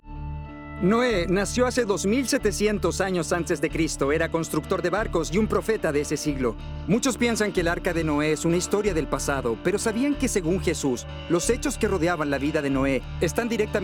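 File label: latam male commercial; voice demos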